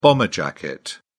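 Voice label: "bomber jacket", British English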